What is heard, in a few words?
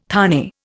"thane", Indian